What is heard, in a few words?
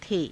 "the", Hindi